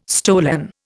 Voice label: "stolen", Indian